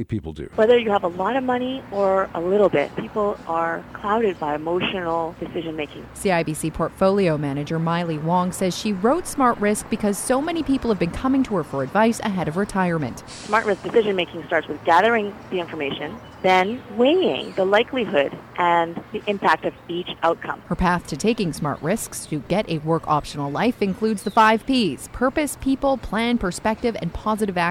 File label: News Clips; CKWX News 1130